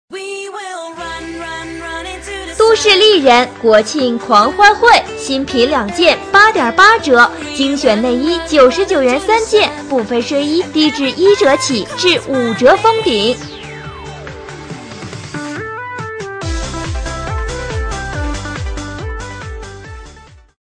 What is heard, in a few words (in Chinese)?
女 促销; 都市丽人